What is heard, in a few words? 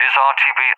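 "is RTB", English, UK; DCS; Allied Flight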